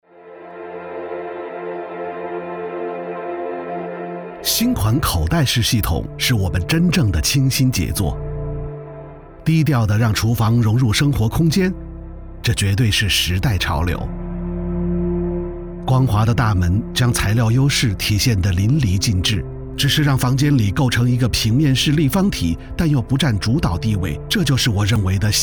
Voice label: chinese mandarin documentaries

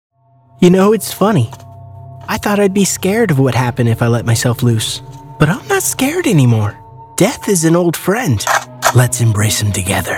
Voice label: english american video games

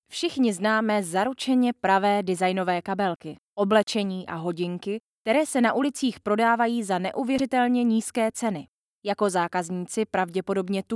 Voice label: czech e-learning

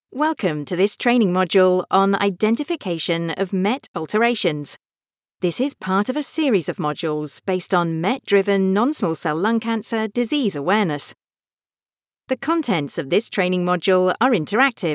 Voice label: english british e-learning

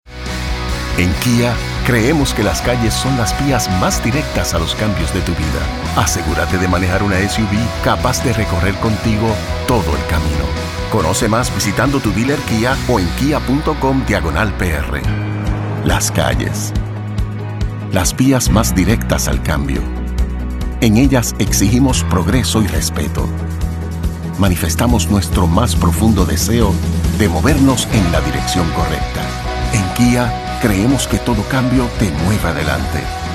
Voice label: spanish puerto rican commercial

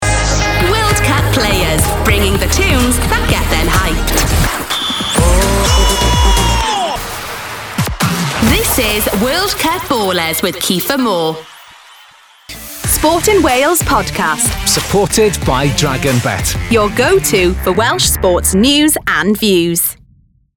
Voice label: english british promos

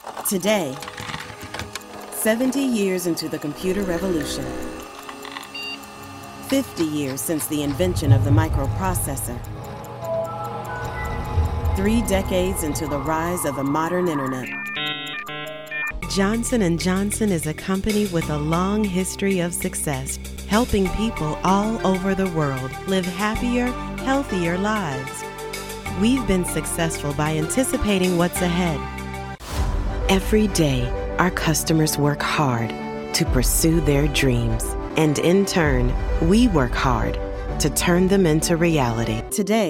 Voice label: English American corporate videos

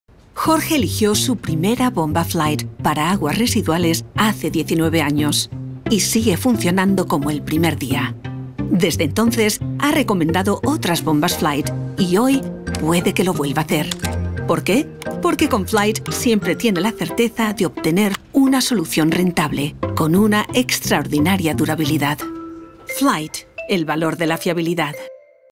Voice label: spanish explainer videos

